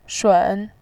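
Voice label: "shun", Mandarin Chinese, third tone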